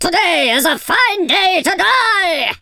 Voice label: bye bye, speech, Viking